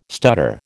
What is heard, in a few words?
"stutter", American